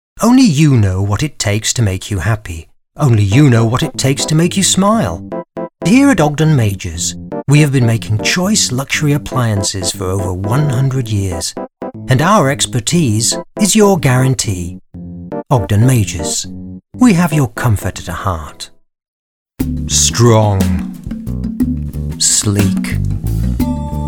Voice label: ADVERT